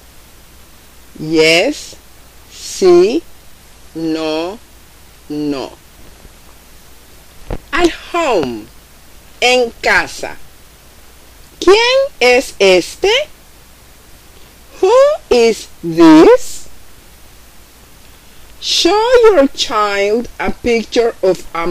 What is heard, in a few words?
Brother and Sister Spanish Parent Toddler